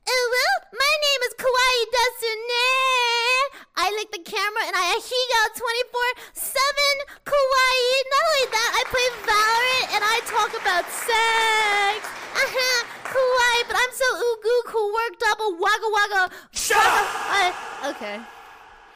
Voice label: cringe uwu

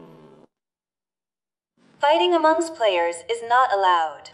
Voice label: announcer; squid games

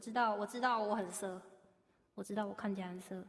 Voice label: sexy

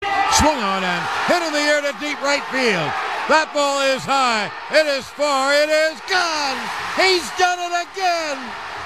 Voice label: calls game tying home run; john sterling; raul ibanez; from game lcs

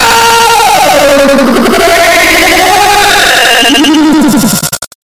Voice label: oh great heavens distorted

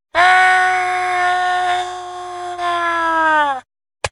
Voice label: villager screaming; minecraft; ai voice

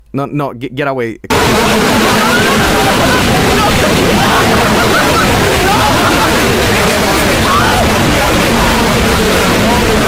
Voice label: no no get away massive earrape

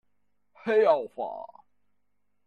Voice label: voice recorder

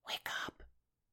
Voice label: wake up whisper

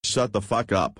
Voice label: shut the f ck up american accent; cincy

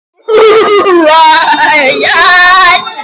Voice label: robot version

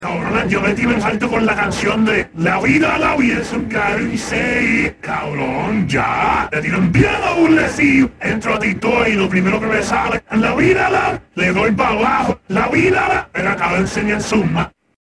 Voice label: yapping; metal sonic

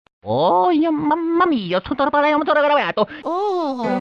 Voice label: grumble speech

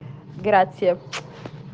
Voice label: thanks and kiss uwu

